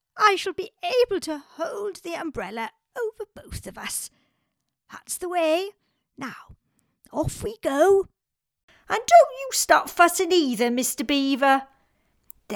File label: Character Animation